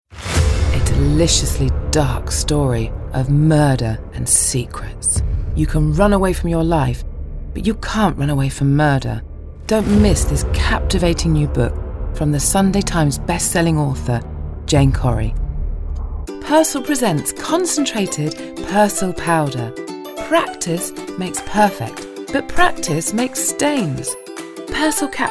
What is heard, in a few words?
Commercial